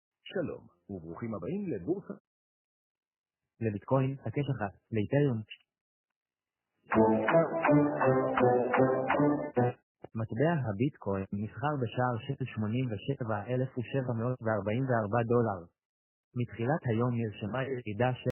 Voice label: voicecall; הקלטת שיחה; בורסה פון קו מידע פיננסי לציבור החרדי